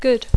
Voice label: "good", English; adjectiu; bo